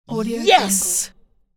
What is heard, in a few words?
enthusiastic yes woman